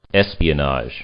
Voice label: "espionage", English; noun